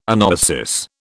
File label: "anoesis", British